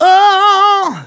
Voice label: Phrase Male; Vengeance Samples